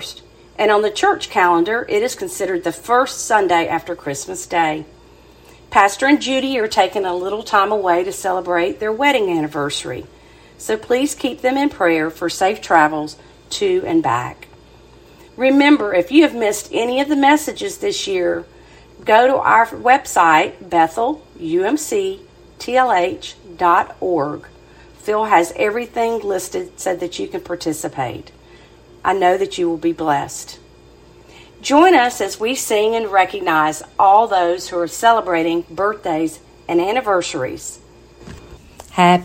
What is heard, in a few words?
Welcome and Announcements